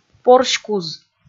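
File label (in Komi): "порськуз" Komi, female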